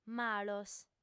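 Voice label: "malos", female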